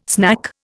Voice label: "snack", Indian

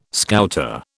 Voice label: "Scouter", British

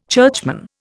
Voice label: "churchman", Indian

